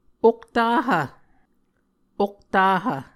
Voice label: "oktahv", Muscogee

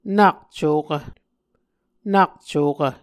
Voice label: "nak cokv", Muscogee

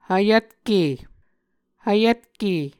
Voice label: "hvyvtke", muscogee

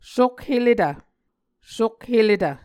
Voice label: "sokheletv", Muscogee

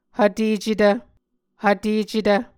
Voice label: "hvtecetv", Muscogee

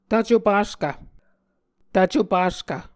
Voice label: "tvco-paskv", muscogee

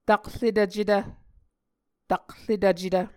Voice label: "takretvcetv", Muscogee

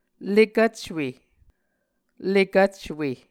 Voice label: "lekvcwe", Muscogee

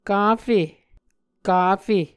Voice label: "kafe", Muscogee

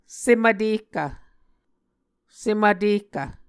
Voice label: "sem vtehkv", Muscogee

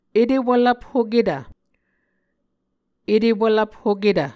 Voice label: "etewvlvphoketv", muscogee

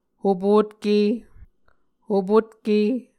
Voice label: "hopotke", Muscogee

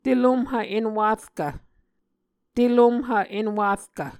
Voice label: "telomhv-enwarkv", muscogee

